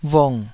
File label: "vung3", taiwanese Syllables